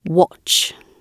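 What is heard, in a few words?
"watch", British English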